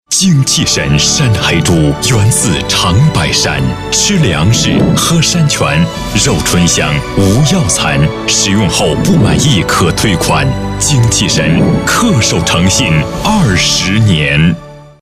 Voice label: 男 广告 山黑猪

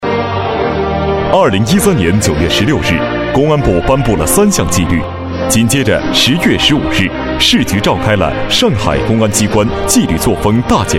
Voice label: 男 专题; 政府专题

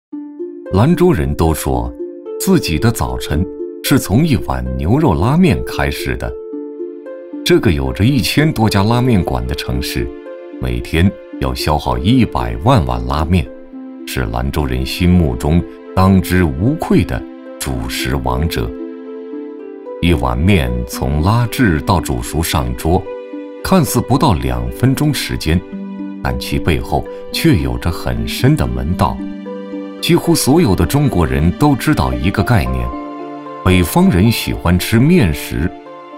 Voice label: 男 纪录片; 舌尖风格

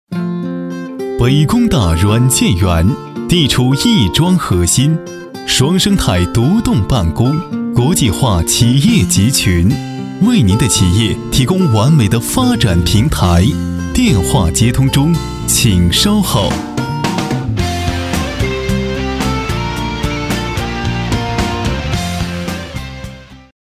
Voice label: 男4 彩铃; 北工大软件园

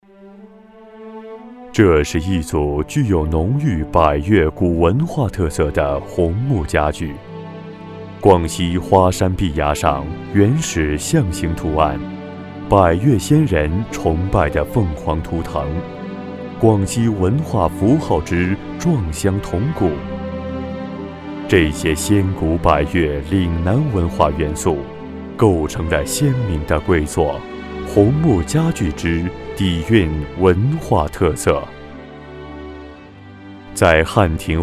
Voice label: 男95-纪录片-低沉讲述

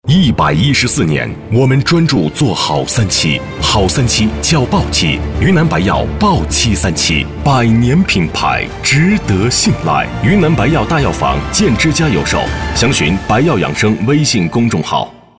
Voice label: 男 广告; 云南白药豹七三七节D

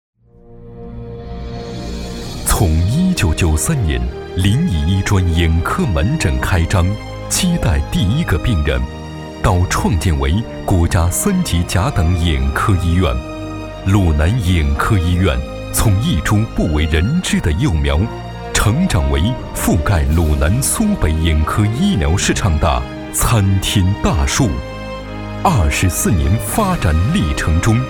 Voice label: 男, 专题

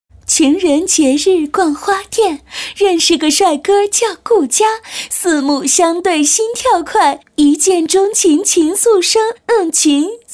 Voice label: 女-模仿-快板说辞; 唐伯虎

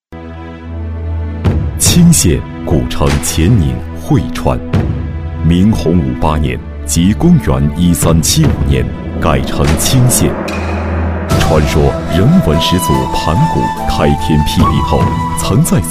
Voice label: 男 专题; 禾洋专题

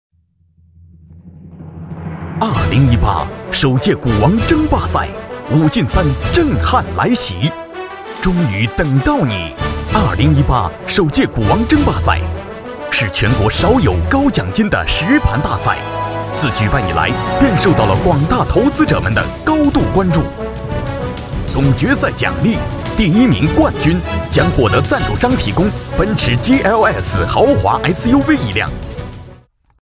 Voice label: 男 颁奖

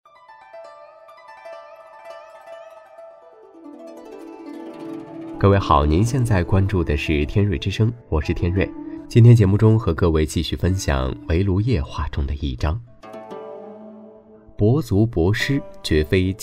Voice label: 男 朗诵 品读散文类节目; 围炉夜话

